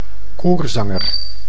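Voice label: "koorzanger", Dutch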